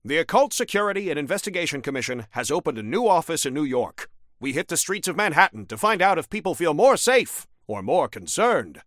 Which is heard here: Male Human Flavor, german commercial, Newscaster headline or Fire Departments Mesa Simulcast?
Newscaster headline